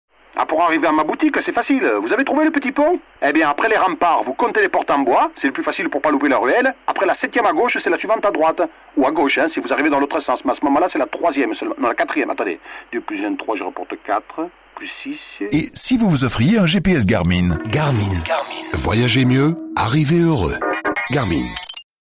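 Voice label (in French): spot, spots radio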